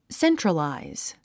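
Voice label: "centralize", English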